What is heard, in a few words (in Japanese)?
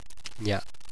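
"nya", Japanese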